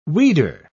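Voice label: "weeder", British English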